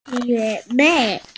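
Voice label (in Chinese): "jie3mei4", Mandarin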